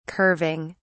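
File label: "curving", British English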